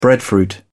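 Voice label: "breadfruit", British English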